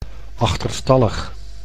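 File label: "achterstallig", Dutch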